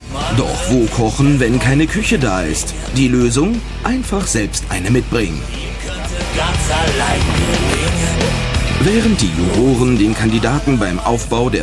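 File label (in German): Kommentar, Männer; Restaurant sucht Chef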